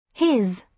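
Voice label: "his", British English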